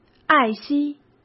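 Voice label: "爱惜", Mandarin Chinese; chinese-flashcards; hsk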